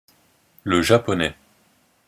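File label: "le japonais", pronunciation, French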